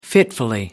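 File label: "fitfully", British English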